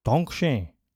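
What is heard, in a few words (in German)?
"Dankeschön", German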